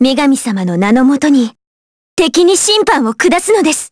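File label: Vox Skill Japanese; Maria L; kingsraid; jp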